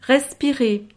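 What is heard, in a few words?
"respirer", French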